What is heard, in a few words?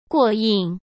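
"过硬", Mandarin Chinese; hsk; chinese flashcards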